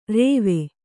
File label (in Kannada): "ರೇವೆ", Kannada